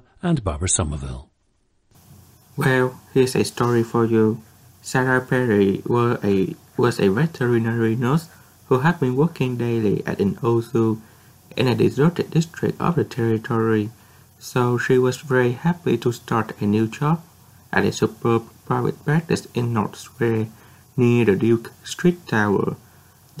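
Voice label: vietnam, International Dialects of English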